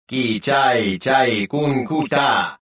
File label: detected, rodian